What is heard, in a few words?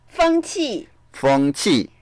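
"feng1qi4", Mandarin Chinese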